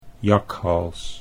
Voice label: "jakhals", Dutch

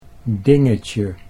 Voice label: "dingetje", Dutch

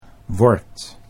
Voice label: "word", Dutch

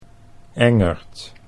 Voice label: "engerd", Dutch

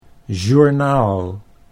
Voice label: "journaal", Dutch

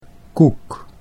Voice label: "koek", Dutch

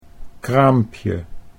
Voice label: "kraampje", Dutch

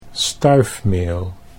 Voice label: "stuifmeel", Dutch

